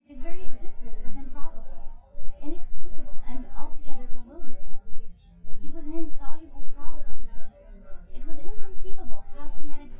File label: female synth conv 5cm; SASSEC07